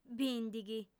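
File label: "bìndighi", female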